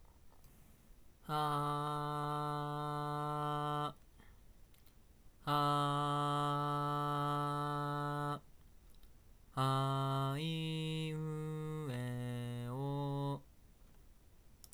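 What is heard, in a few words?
X-Fi GO Pro mic boost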